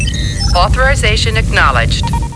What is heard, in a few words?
Computer - Authorization acknowledged; startrek